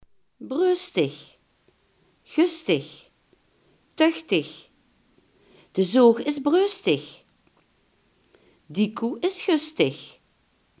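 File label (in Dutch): "bronstig" Limburgish dialect word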